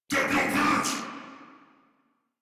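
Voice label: Reload, English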